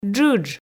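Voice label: "джыдж", Komi, female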